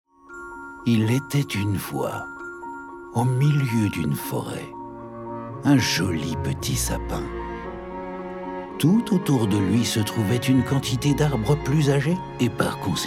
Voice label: conteur

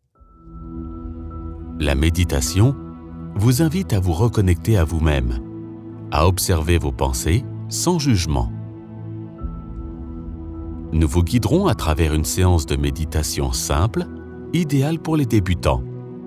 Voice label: Podcast bienetre relaxation, Meditation - Yoga, French Male Voice; Podcasting

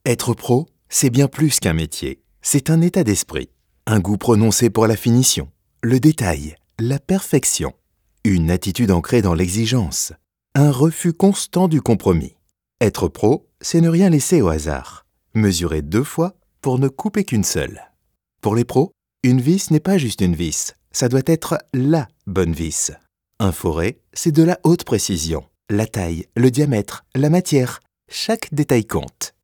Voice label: bricolage pub ton conversationel

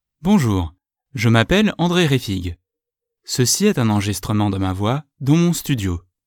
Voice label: Enregistrement Studio

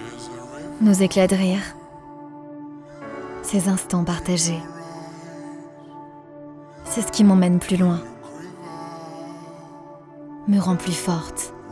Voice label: PUB FEMME EMOTION PARFUM; CHLO

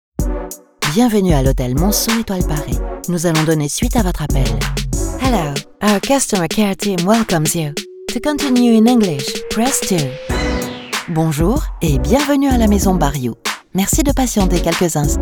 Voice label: Attente Tel On Hold

